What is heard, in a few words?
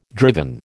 "driven", American